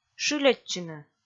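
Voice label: "шыльӧдчыны", Komi, female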